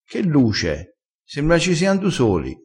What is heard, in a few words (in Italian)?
"du", crespinese